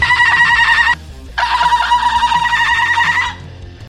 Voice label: Screech